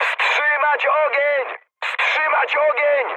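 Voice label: player friendly fire, mood high, Polish speech; War Thunder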